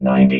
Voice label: "ninety", robot